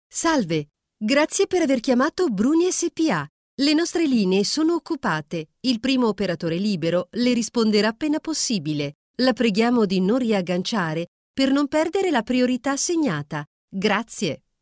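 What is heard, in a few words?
Italian IVR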